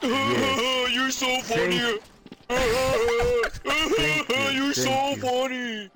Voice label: so funny; torchlight